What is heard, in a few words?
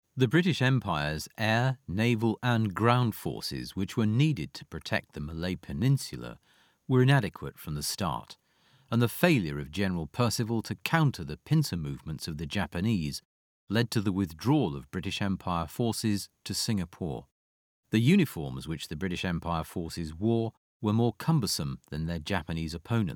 Audiobooks Nonfiction WWII